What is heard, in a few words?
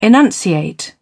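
"enunciate", English, British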